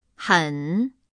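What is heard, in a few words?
"很", Chinese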